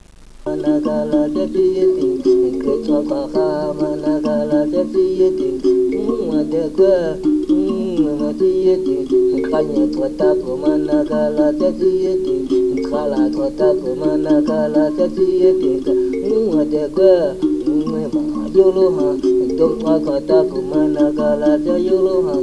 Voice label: kxoe